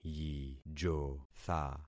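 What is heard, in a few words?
"yijotha", concatenated syllables; Affixing experiment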